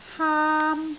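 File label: "haam1", Cantonese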